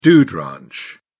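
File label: "dude ranch", British English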